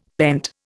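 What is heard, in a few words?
"pent", Indian English; Dictionary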